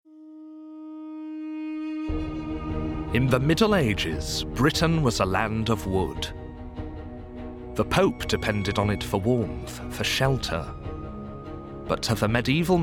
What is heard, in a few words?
male english documentary; english northern accents; the medieval mind